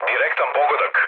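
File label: Serbian bomb success mood low, speech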